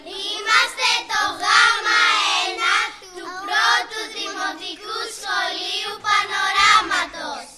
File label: spot